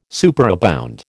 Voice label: "superabound", American